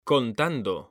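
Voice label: "CONTANDO", Spanish, male; mekanta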